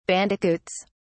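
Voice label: "bandicoots", British English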